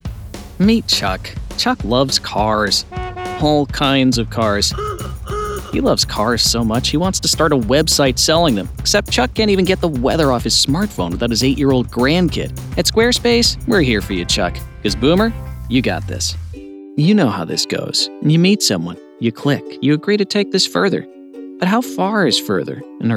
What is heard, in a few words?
whiteboard explainer